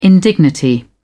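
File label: "indignity", British English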